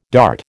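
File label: "dart", American English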